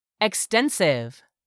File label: "extensive", English; 3000 words